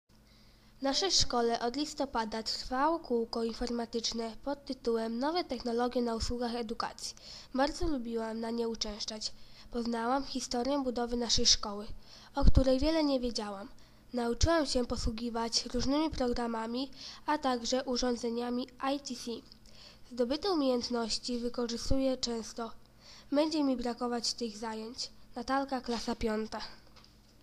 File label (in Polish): narracja